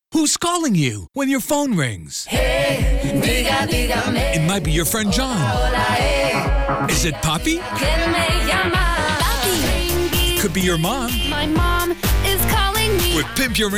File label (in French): Voix Off Voice Over; PimpUrRingtones